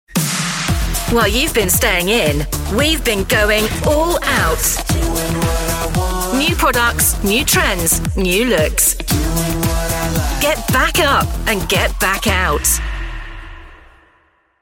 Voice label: commercials cool stylish